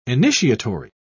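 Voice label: "initiatory", British English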